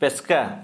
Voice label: "Testicles", Santali